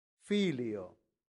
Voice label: "filio", latin; santillana

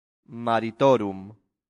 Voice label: "maritorum", latin; santillana

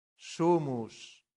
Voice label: "sumus", Latin; santillana

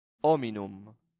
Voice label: "hominum", latin; santillana

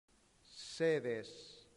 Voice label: "sedes", latin; santillana

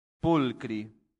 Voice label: "pulchri", Latin; santillana

